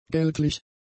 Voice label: "geldlich", German; adjectives